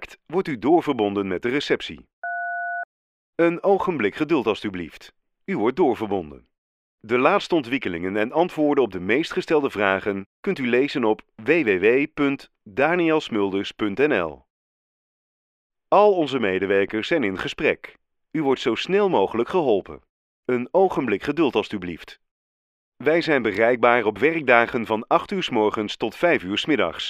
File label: IVR phone, voice-over